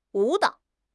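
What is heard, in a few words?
"wu3 dao3", Chinese; HSK4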